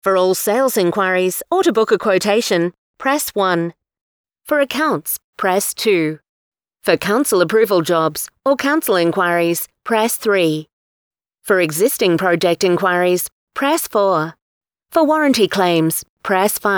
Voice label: IVR